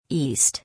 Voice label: "East", English